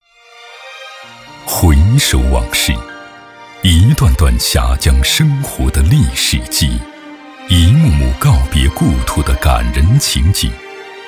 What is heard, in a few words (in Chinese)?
男 纪录 党政 片 舒缓深情; 三峡移民博物馆